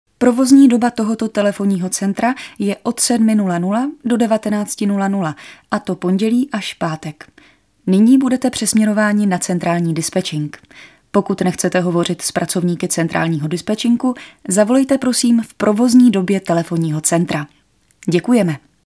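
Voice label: Female Czech IVR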